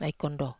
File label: "ai kondo", Wamesa